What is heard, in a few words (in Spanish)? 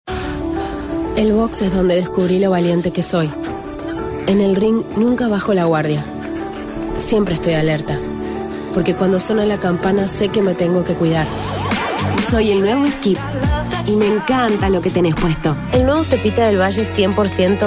Spanish Argentinian commercial